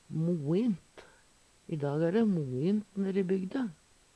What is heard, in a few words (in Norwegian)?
"moint", Numedalsmål; Veggli; Adverb